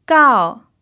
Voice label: "gao4", chinese pinyin